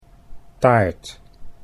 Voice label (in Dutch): "taart", Dutch